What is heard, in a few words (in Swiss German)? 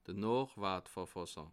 "noochtwortverfasser", alsace